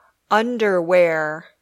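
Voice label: "underwear", English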